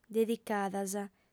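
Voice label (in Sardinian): "dedicadas", female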